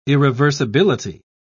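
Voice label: "irreversibility", British English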